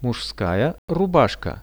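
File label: "men's shirt", Russian; мужская рубашка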